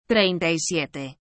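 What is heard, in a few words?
"treinta y siete", Spanish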